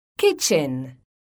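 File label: "KITCHEN", English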